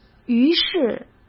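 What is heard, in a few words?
"于是", Mandarin Chinese; hsk; chinese-flashcards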